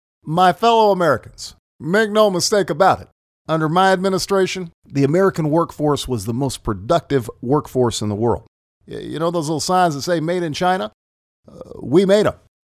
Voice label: Impression; Barack Obama